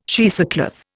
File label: "cheesecloth", Indian